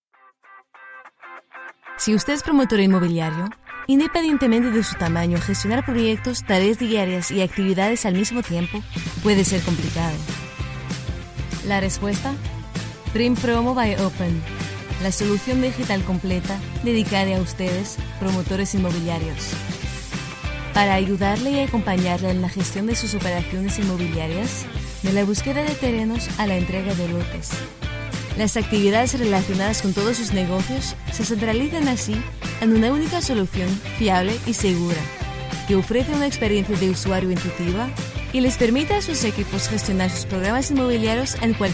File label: spanish corporate vo